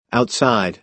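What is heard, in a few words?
"outside", American English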